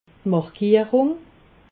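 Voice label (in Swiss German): "markierung", Alsatian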